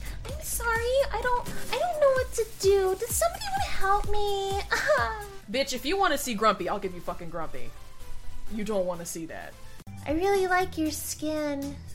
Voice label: kiwi voices

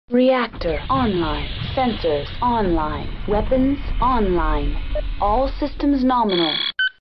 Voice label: reactor online all systems nominal, startup voice; mechwarrior

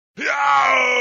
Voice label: villager killed iaaaooough; age of empires 2

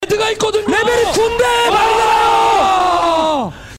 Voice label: korean casters

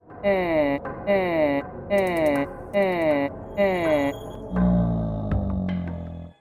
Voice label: ancient mummys voice

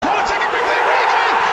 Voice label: corner taken quickly english commentary

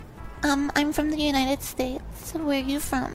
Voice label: female im from united states

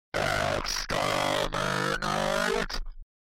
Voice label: exterminate high

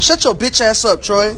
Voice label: man angry

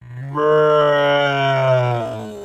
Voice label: "bruh" but slow

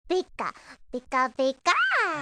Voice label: "pika pika pikaaaaa"

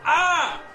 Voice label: commercial

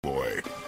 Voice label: boy

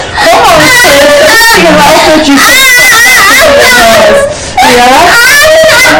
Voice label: high pitch

